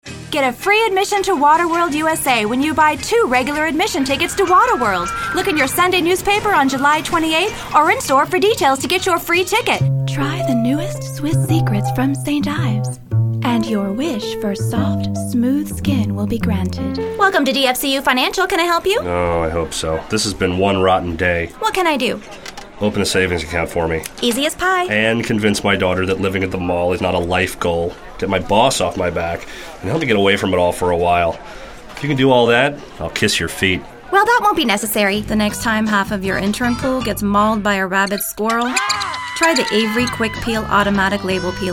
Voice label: FEMALE